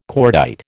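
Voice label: "cordite", American English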